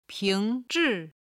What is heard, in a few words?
"ping2 zhi4", Chinese